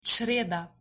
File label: "creda", Slovenian